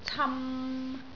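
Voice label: "cam3", Cantonese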